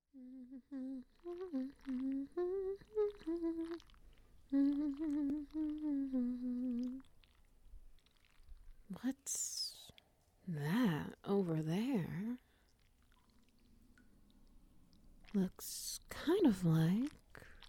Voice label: Ambience SFX Wet Noises; For Your Own Health